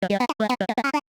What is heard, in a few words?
scrappy jolly medium clear jolly, voice archetypes; voxel audio preview